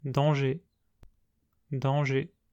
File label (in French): "danger", French